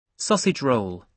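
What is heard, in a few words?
"sausage roll", British English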